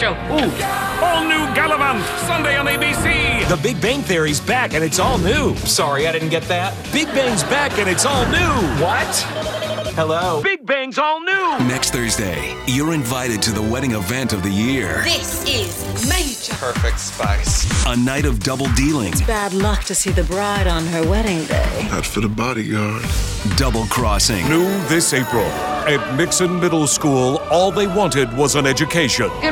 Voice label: tv affiliate comedy

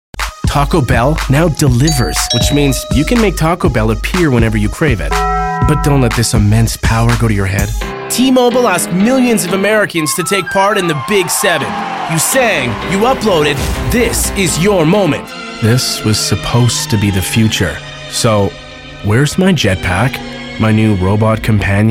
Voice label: commercial men

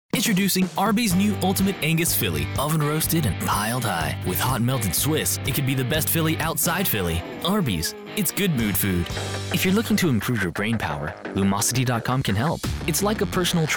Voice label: commercial men

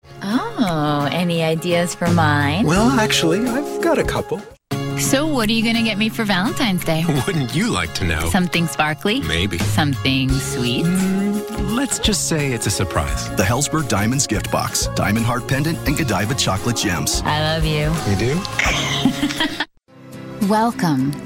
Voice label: commercial women

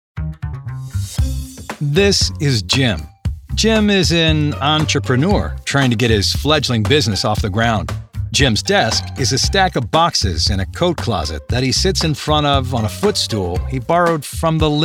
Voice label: commercial men explainer narration

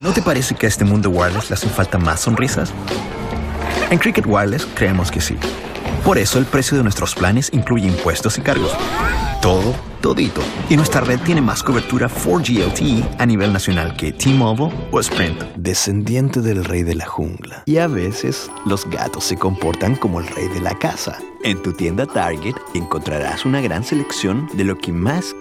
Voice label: languages spanish

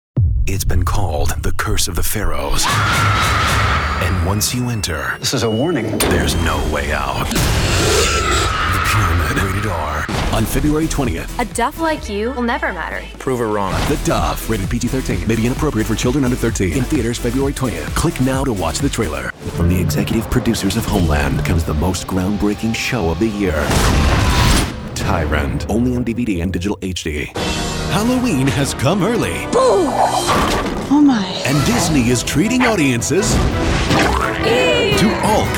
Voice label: promo male

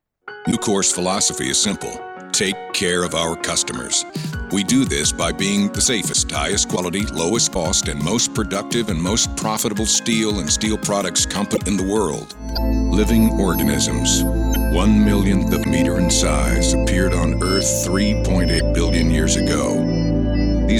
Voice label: narration

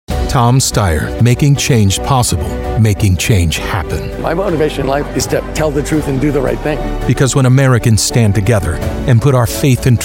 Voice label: political issues men

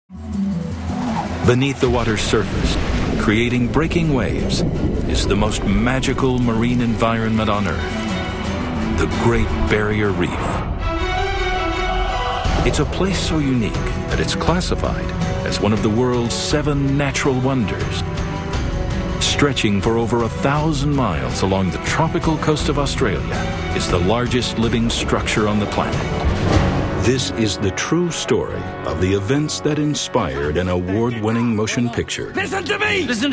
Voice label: narration

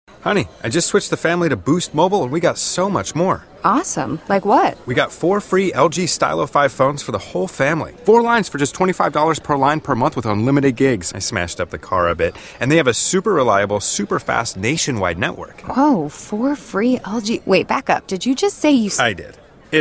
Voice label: commercial men

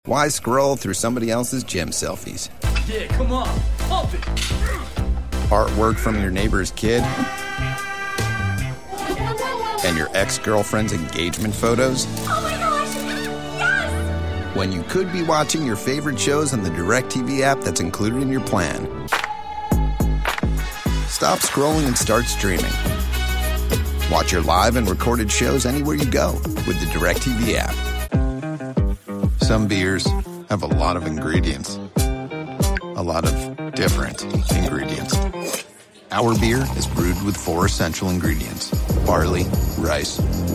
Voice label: commercial men

